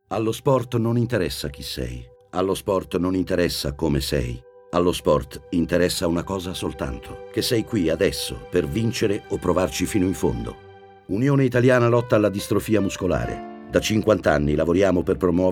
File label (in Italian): Spot Radio Sms